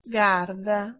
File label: "garda", Proto-Germanic